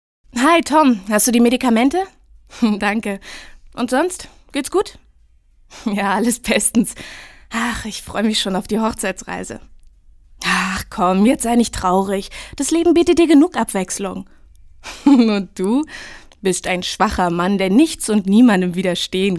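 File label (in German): synchron, sprecher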